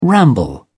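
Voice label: "ramble", British English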